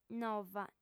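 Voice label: "nova", female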